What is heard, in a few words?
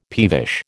"peevish", American